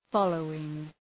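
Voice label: "following", English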